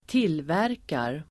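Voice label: "tillverkar", Swedish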